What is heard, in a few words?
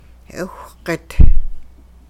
I m sawing it, hupa